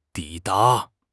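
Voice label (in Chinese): "抵达", Mandarin Chinese; HSK6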